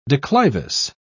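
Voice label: "declivous", British English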